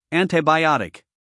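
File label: "antibiotic", American English, male; 1000 hours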